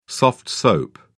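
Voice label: "soft soap", British English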